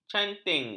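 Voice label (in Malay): "canting", Malay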